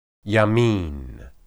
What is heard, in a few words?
"yamin", Hebrew